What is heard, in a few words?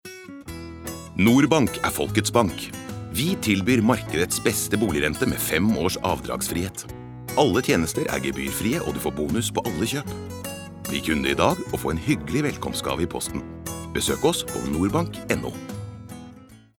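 Norwegian commercial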